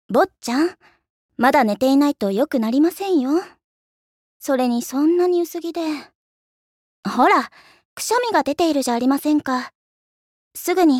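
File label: caring maid, Japanese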